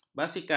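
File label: "basikal", Malay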